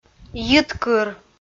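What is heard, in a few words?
"йиткыр" Komi, female; audio dict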